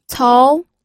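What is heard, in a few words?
"cou", pinyin, second tone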